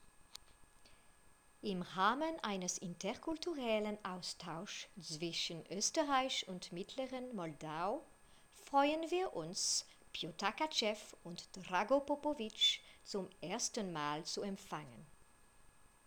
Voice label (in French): Voix off Allemand